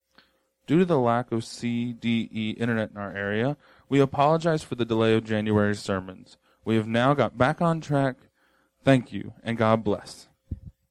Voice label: Announcement